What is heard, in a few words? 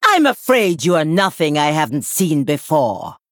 Female Troll Loss; Madame Lazul